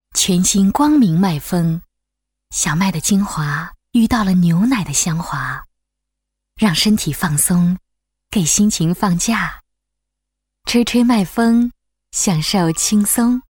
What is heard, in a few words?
VOICES ENGLISH Male Adult; KERSOUND